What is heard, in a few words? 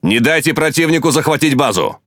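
Russian Speech Quick Commands Ally Base; World Of Warships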